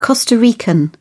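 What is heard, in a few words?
"costa rican", British English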